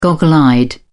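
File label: "goggle-eyed", British English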